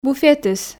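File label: "буфетыс", Komi, female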